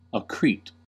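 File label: "accrete", US English; pronunciation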